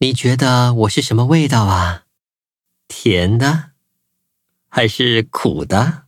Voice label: loading, zh-hant; cookierun